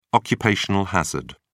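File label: "occupational hazard", British English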